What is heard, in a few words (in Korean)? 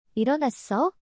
"일어났어？", Korean; イロナッソ？